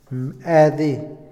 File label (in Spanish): desaparecer, Otomí, hombre; Movimiento; INALI